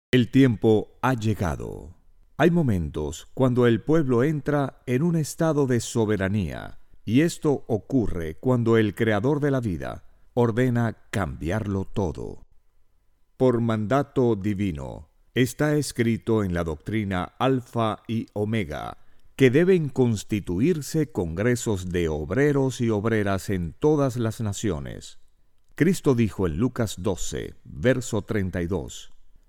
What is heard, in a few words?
Spanish (LA), Male